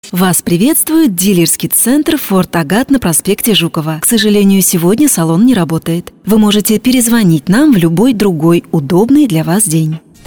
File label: IVR